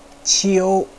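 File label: "qiu", Mandarin Chinese, first tone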